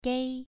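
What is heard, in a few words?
"gei", Mandarin Chinese, second tone, pronunciation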